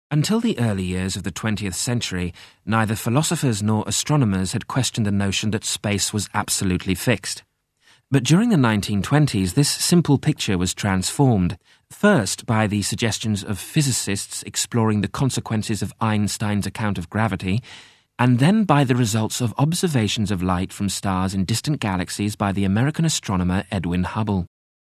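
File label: narration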